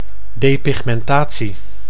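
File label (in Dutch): "depigmentatie", Dutch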